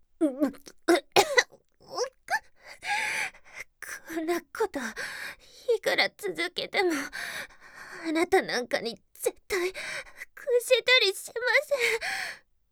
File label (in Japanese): 後口上 まだまだ強気